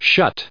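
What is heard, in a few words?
"shut", US English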